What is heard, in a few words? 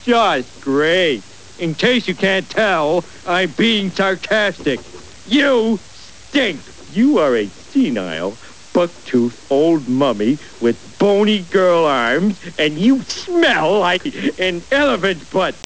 sarcastic